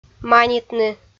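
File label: "манитны", Komi, female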